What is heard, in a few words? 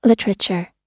"literature", us